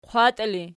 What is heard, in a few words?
"qwattii", Archi